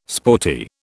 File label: "sporty", British